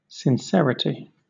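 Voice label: "sincerity", British English; pronunciations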